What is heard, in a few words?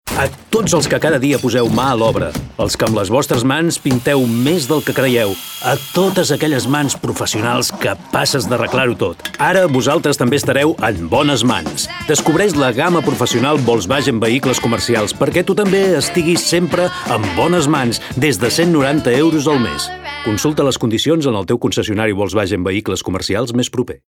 male catalan car comercial